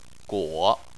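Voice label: "guo", Chinese, third tone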